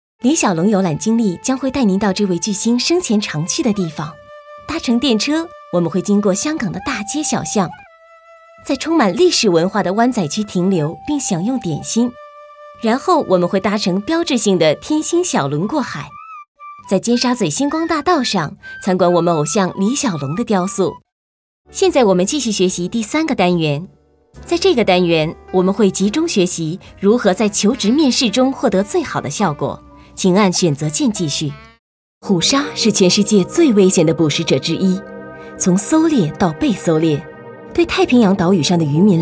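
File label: Mandarin, narration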